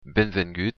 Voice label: welcome, occitan

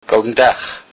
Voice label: good afternoon, lowsaxon

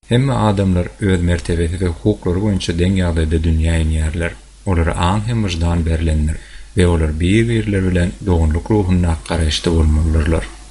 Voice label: udhr turkmen

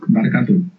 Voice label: "excuse me", Basque

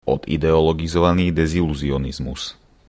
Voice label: "deziluzionizmus", slovak

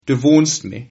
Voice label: I miss you, Sylt Frisian

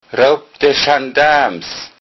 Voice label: police, lowsaxon

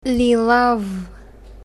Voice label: lilav, bulgarian colours

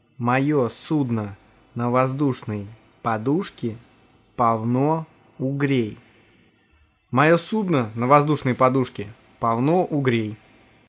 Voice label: hovercraft, russian; Моё судно на воздушной подушке полно угрей; (Moë sudno na vozdushnoy podushke polno ugrey)

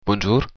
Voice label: hello, occitan

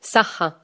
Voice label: goodbye, maltese

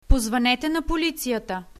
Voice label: police, bulgarian

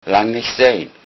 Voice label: long time no see, lowsaxon

